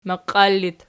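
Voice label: "maqiar" locative, Archi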